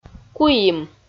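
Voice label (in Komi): "куим", Komi-Zyrian, female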